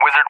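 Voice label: "Wizard", English, USA; CallSign; DCS; Allied Flight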